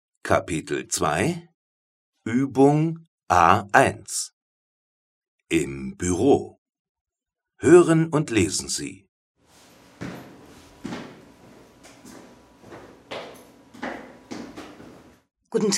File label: office conversation